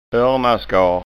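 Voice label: "ornaskar", Scanian; Öronvax; Ørevoks; Ear wax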